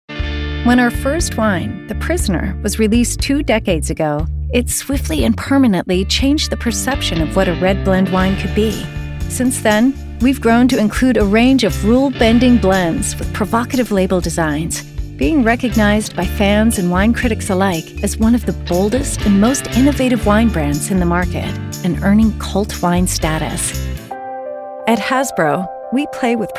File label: corporate